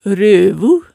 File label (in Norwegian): "røvu", hemsedalsmaalet